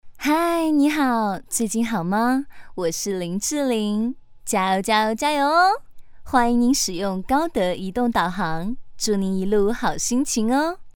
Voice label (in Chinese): 嗲; 模仿林志玲风格嗲